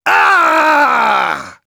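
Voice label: Engineer battlecry, German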